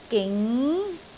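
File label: "ging2", Cantonese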